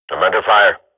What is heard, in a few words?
radio bot under fire, swat